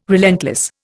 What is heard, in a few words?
"relentless", Indian